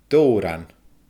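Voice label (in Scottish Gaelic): "dobhran", Scottish Gaelic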